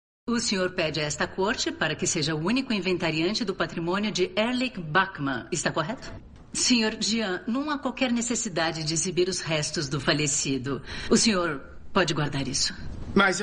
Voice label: Dublagem

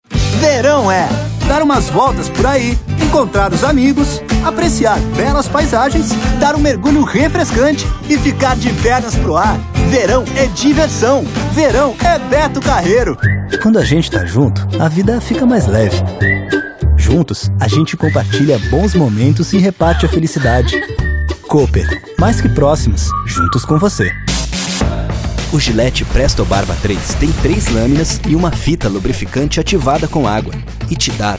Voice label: Locutor Reel

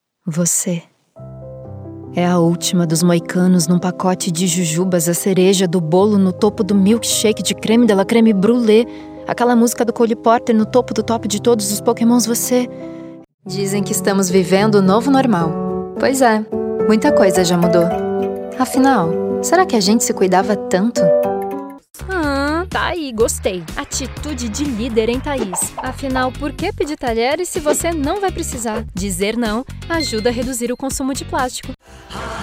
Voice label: jovem

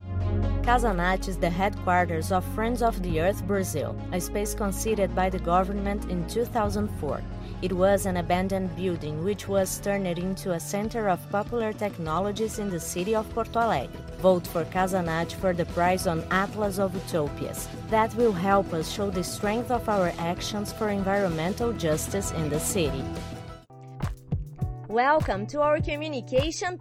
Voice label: Portfólio Inglês

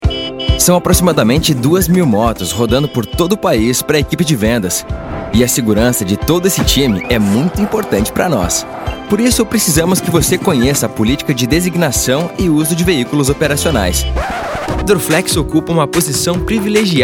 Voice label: REEL Institucional

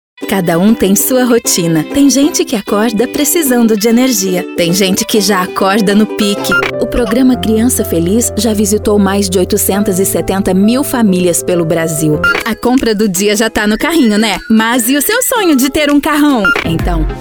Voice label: Locutora

